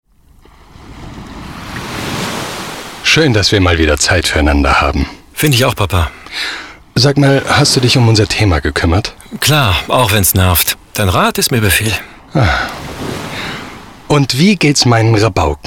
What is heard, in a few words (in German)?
Dialog